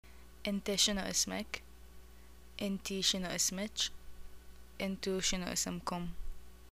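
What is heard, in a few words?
what is ur name, Arabic, Iraq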